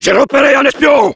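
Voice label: Heavy cloaked spy, French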